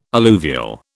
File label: "alluvial", British